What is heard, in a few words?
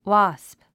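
"wasp", English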